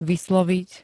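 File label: "vysloviť", Slovak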